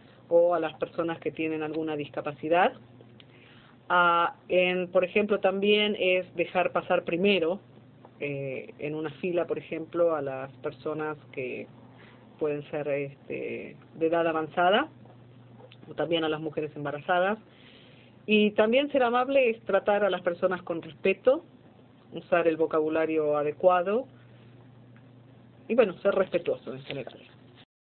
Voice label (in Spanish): Argentina Fem